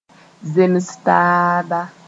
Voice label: "zems-taaba", moore

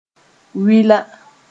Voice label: "wɩla", moore

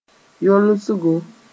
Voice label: "yolsgo", moore; healing

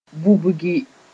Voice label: "vubgi", moore

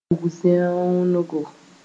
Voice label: "bug-zẽoongo", moore

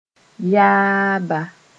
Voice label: "yaaba", moore; grandparent

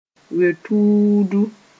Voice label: "weo-tuudu", moore

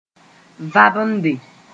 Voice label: "vabende", moore

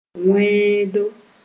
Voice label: "wẽedo", Moore

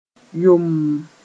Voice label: "yomm", moore; completely green

